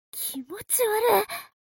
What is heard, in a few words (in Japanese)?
mob 女子 台詞